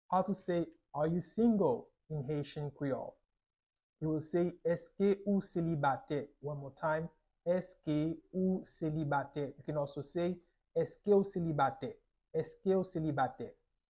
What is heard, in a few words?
How to say Are you single in Haitian Creole Eske ou selibate pronunciation by a native Haitian Creole Teacher